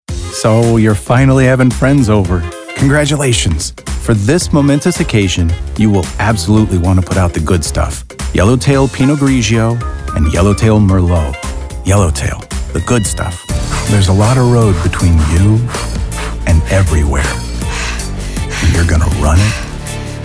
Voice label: commercial